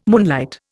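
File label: "moonlight", Indian English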